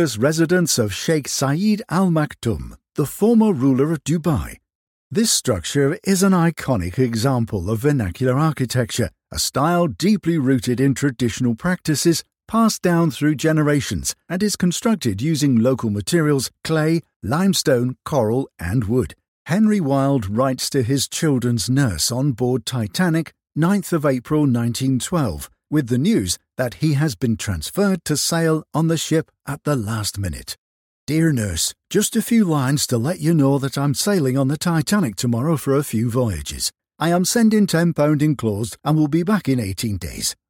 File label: english british audio guides